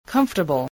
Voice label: "comfortable", voice